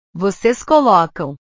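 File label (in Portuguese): "vocês colocam", Portuguese; portugues/verbos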